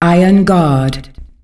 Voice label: Iron Guard, Announcer Voice, female; Unreal Tournament; ut2004